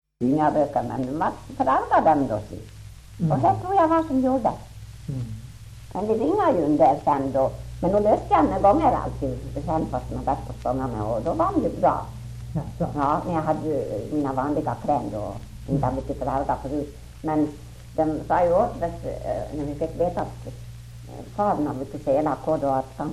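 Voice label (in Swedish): Ål Dalarna, dialekter; Folkminnen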